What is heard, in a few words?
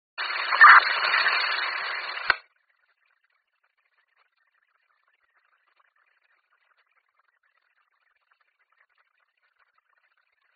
cop chatter